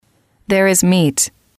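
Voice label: "there is meat", English, female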